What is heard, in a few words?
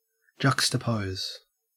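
"juxtapose", Australian English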